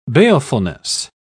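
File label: "balefulness", British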